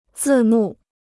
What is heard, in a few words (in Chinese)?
"字幕", Chinese